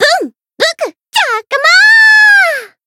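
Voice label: Voice win, Japanese; myungwha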